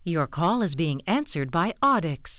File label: Audix Greeting Part1; avaya